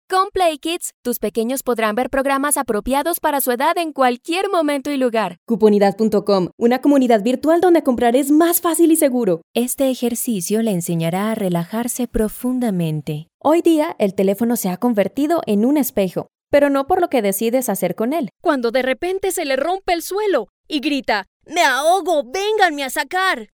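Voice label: Spanish Latin America female sales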